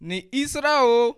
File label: easter, bassa